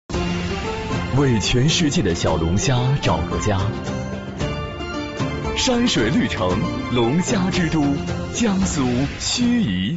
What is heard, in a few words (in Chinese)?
男, 城市宣传片